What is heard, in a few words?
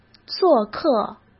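"做客", Mandarin Chinese; chinese-flashcards; hsk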